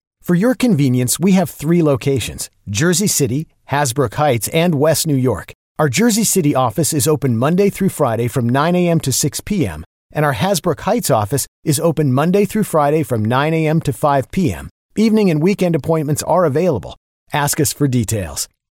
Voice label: Male